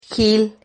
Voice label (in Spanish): "gil", Silabas